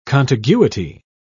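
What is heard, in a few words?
"contiguity", British English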